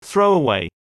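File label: "THROW AWAY", English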